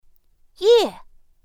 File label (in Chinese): "yè", pinyin; pinyin2; pinyinji